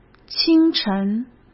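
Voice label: "清晨", Mandarin Chinese; hsk; chinese-flashcards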